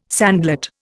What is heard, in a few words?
"sandlot", Indian